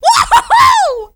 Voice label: scout cheers, femscout

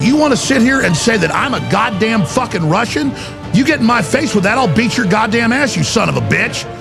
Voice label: rant; noisemakers; alex jones

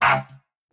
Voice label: pain; tf; corpus

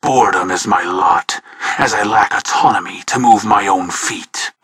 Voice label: jeers; tf

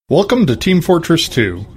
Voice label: welcome loud; tf; saysounds

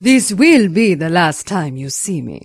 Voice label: femspy rage

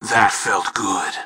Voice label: feelgood; tf

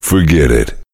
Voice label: heavy no; tf; duke

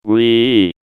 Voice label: taunt flip exert; pyro

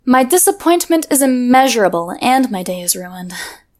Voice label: disappointment

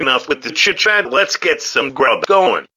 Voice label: battlecry; garfield

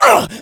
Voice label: been shot, zombie; Left 4 Fortress

